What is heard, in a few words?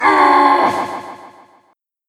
rage; tf2; freak fortress 2; the madcap